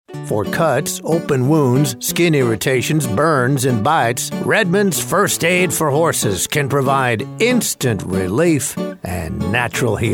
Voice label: dialect character

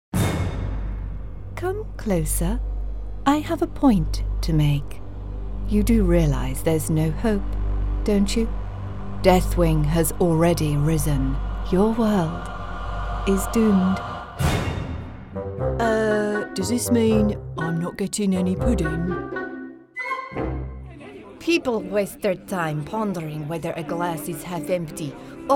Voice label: Animation

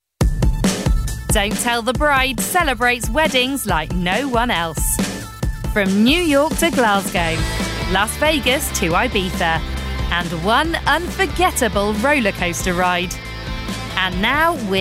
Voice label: documentary

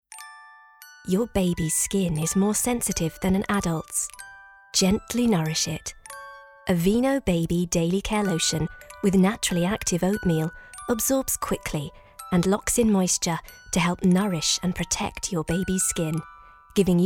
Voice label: Commercial Reel

